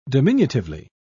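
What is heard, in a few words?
"diminutively", British English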